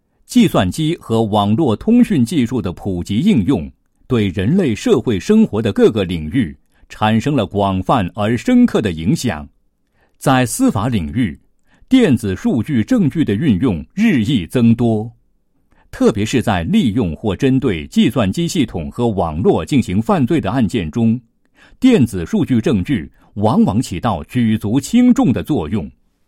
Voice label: 男 科技类解说; 电子现场的勘查

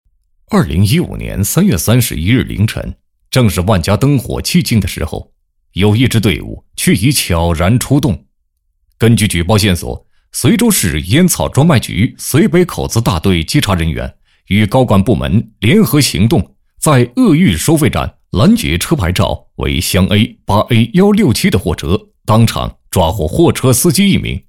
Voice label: 男, 宣传片; GPS背后的黑幕修配音

